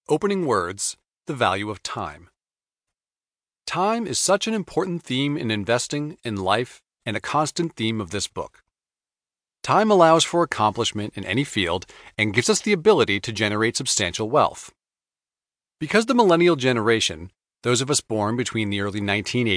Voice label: Voice Actor; The Millennial Advantage